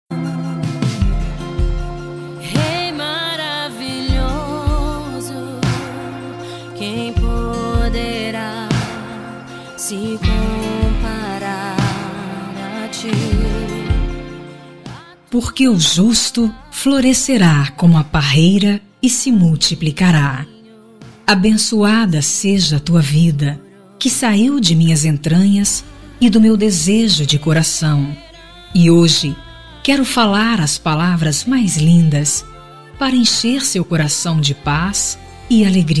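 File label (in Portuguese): Aniversário Filha Evangélica Feminino, telemensagem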